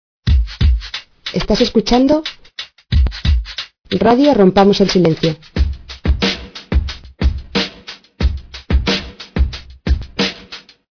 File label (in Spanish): Estas escuchando radio, mujer